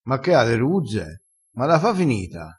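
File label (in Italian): "ave le ruzze", dialettale crespinese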